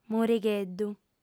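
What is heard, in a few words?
"morigheddu" Sardinian, female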